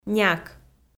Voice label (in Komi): "няк", Komi